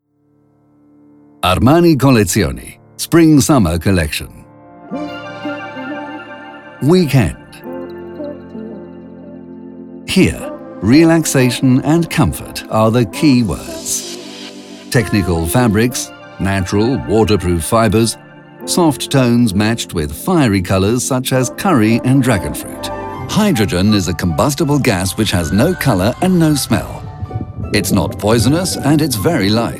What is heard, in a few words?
e-learning